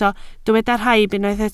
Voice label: "rhaib", Welsh

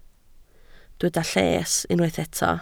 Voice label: "lles", Welsh

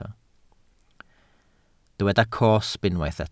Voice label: "cosb", welsh

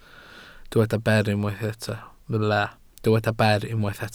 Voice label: "ber", Welsh

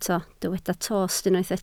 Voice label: "tost", welsh

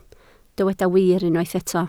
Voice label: "wir", Welsh

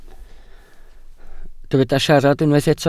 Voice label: "siarad", Welsh

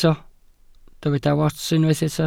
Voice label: "wats", Welsh

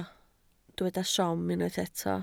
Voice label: "siom", welsh

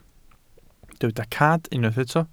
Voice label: "cad", welsh

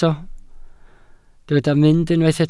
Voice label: "mynd", Welsh

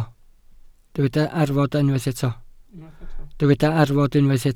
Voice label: "arfod", Welsh

